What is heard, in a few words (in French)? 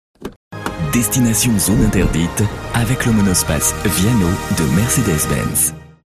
droit, comédien voix off parrainage; MERCEDES droit